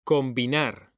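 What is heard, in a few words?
"COMBINAR", Spanish, male; mekanta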